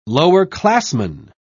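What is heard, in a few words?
"lowerclassman", British English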